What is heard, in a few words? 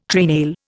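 "treenail", Indian English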